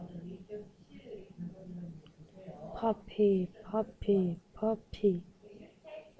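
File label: "puppy", spoken word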